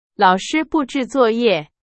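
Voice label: Chinese; hsk4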